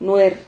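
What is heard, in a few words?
"nuer", Spanish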